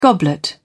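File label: "goblet", British English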